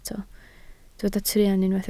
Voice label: "truan", Welsh